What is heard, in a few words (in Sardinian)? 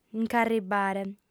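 "nc'arribare", female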